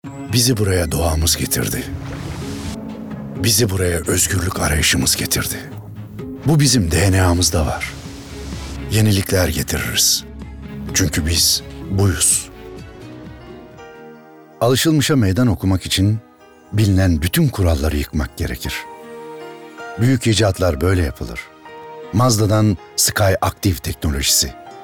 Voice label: REKLAM

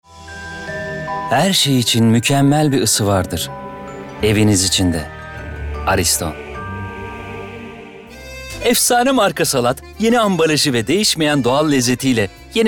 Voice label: REKLAM, tr

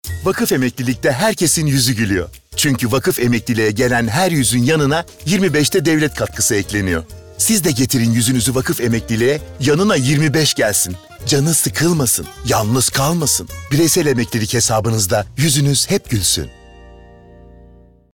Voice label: Komik

